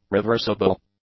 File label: "reversible", English; adjectives